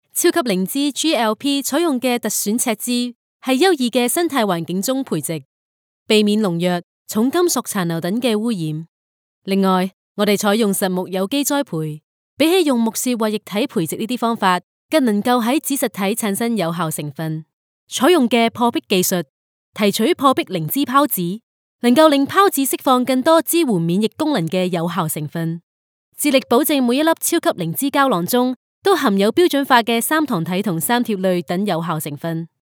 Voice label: Narration